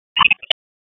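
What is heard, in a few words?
EMS DISP, radio; wake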